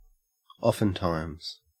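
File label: "oftentimes", Australian English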